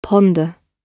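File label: "ponder", British English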